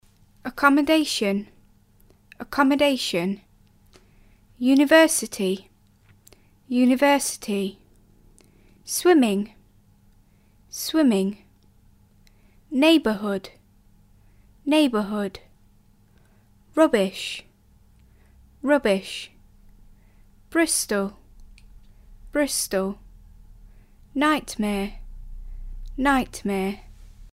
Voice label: pronunciation and spelling